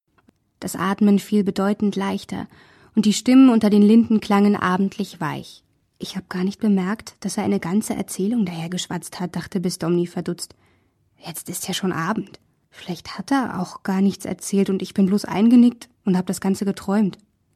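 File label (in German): Hörbuch; LOFT VOICES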